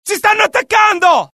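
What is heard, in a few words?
alert, Italian; mohaa; dialogue; sighted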